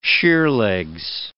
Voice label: "sheerlegs", words